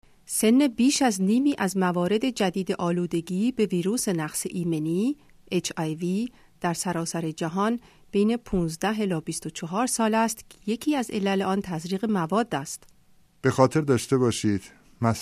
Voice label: spot farsi